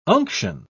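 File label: "unction", British English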